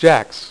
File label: "JACKS", Dealer's Speech